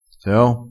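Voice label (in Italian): "se o", vocabolario dialettale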